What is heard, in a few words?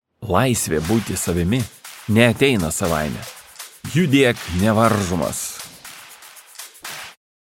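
Lithuanian, male, commercial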